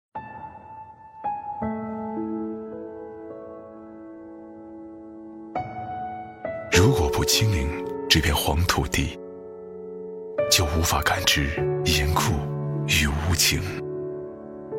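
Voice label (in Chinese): 国语 男声 广告 大气 欢快 大气浑厚磁性 沉稳 科技感 积极向上 时尚活力; 吉利系列-汽车广告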